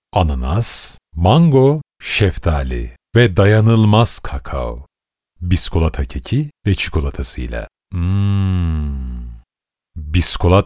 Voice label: Turkish male; Biscolatapiammh